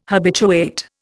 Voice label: "habituate", Indian